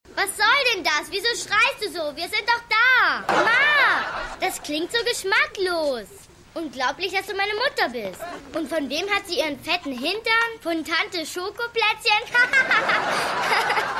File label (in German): Junge Fran; Junge Nadine; Die Nanny